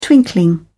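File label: "twinkling", English, British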